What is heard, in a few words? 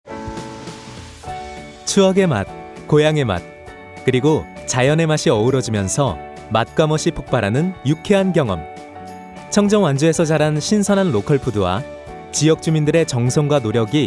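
Korean, male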